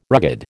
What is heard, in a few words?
"rugged", American